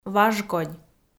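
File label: "важкодь", Komi, female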